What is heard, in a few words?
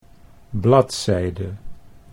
"bladzijde", Dutch